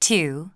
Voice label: english count 3; samsung YP-U5; Audio User Interface

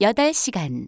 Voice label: Korean 5 hour; samsung YP-U5; Audio User Interface

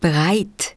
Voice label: german ready; samsung YP-U5; Audio User Interface

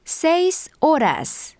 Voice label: spanish 9 hour; samsung YP-U5; Audio User Interface

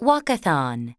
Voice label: english walkathon; samsung YP-U5; Audio User Interface

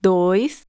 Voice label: Portuguese count 2; samsung YP-U5; Audio User Interface

